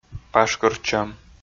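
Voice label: "пашкыртчӧм", Komi, male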